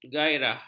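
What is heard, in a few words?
"ghairah", Malay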